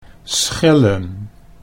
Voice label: "schillen", Dutch

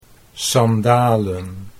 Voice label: "sandalen", Dutch

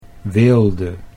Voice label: "weelde", Dutch

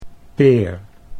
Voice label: "peer", Dutch

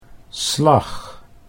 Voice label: "slag", Dutch; 'knack'

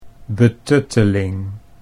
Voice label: "betutteling", Dutch

